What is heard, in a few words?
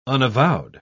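"unavowed", British English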